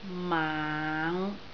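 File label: "maang5", Cantonese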